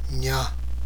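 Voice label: "nya", Tibetan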